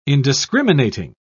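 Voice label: "indiscriminating", British English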